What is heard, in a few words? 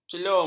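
"celum", Malay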